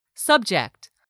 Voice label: "subject", English; 3000 words